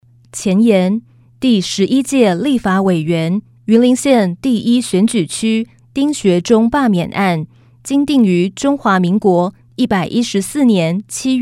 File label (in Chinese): 國語; 第11屆立法委員 (雲林縣第1選舉區) 丁學忠罷免案前言; 有聲公報